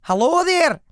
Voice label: greetings female hello there scottish accent human voice speaking